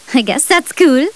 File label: cheer; maeve